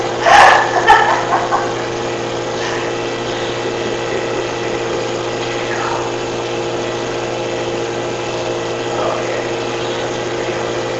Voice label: Basement Unexplained Low Whisper (No) Amplified